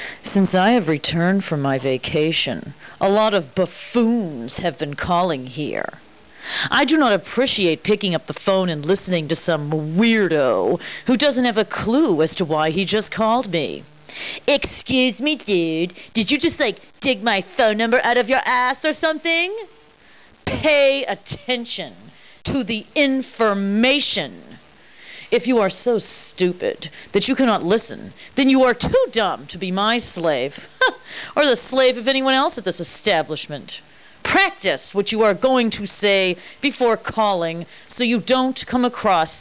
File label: voicemail